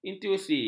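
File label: "intuisi", Malay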